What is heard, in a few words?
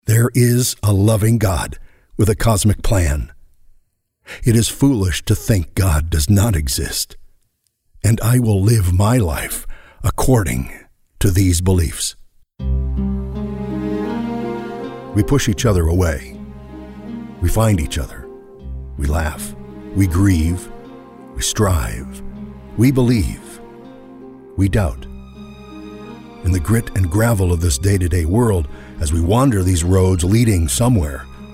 Inspirational